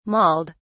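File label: "mauled", British English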